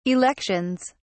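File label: "elections", British English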